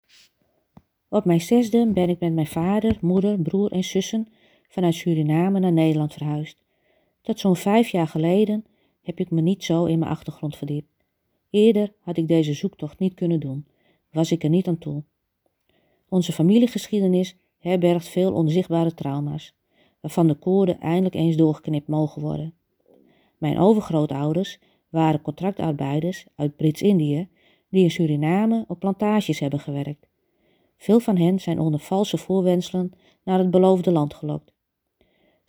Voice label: interview fragment